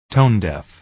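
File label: "tone-deaf", American English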